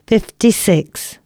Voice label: announcements, female